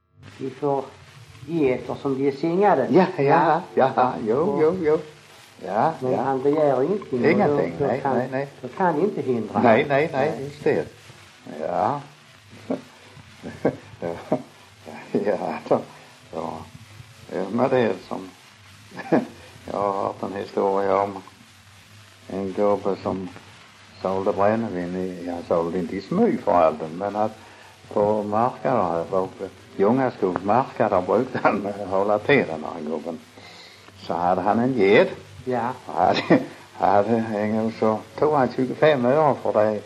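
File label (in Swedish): Munka-Ljungby Skåne, dialekter; Folkminnen; Svenska sagor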